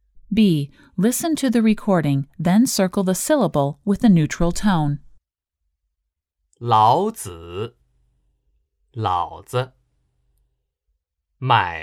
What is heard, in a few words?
Neutral Tone